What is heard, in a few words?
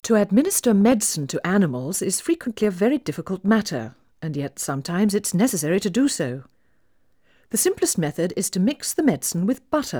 Female speech English Mono output noised; 3bit